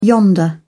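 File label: "yonder", British English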